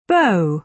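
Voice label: "bow", British English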